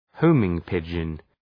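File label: "homing pigeon", English; {‘həʋmıŋ,pıdʒən}; Ουσιαστικό; ταχυδρομικό περιστέρι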